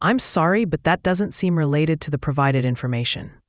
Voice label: question english